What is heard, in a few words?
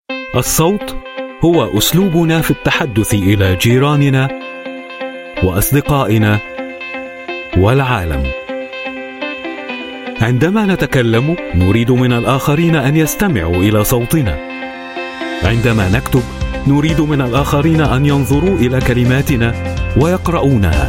Arabic Male Voice